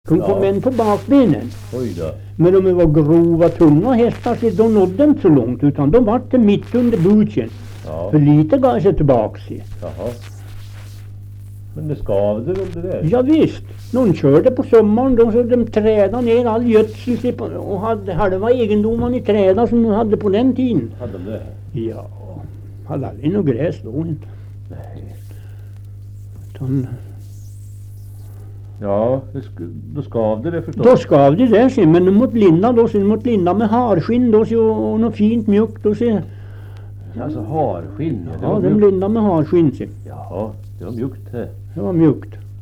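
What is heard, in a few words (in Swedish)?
Österfärnebo Gästrikland, dialekter, svenska sagor; Folkminnen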